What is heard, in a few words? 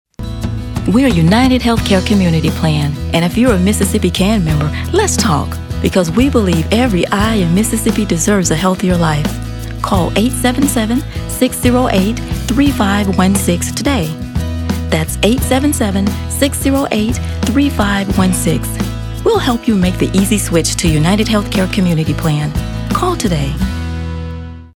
Announcer